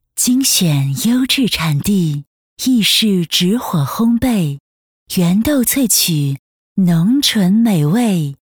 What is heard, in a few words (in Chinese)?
广告 唯美清新